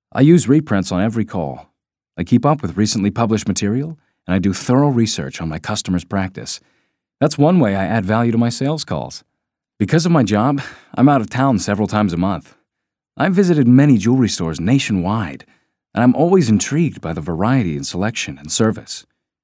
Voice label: 20s 30s Male Narration